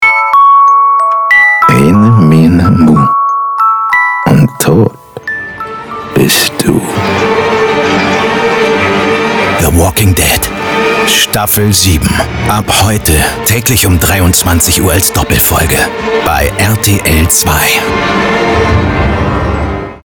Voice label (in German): funkspot; the walking dead